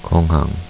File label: "airport", korean